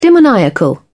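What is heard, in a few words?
"demoniacal", British English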